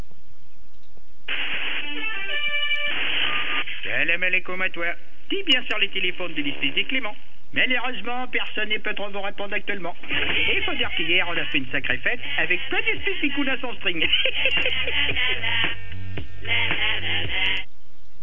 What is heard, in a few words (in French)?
repondeur